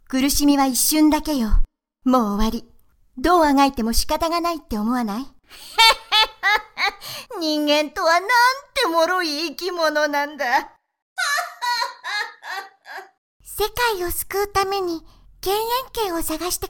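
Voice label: Japanese voices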